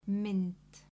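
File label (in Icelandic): "mynd", Icelandic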